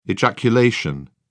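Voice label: "ejaculation", British English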